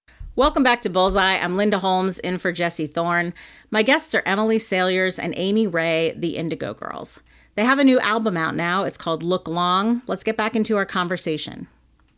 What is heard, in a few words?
announcer; bullseye with jesse thorn - npr